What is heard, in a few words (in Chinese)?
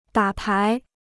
"打牌", Chinese